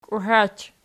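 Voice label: "kuhach", nominative, Archi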